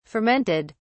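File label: "fermented", English, British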